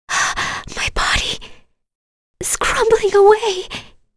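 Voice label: Vox Dead, English; kingsraid; voices heroes en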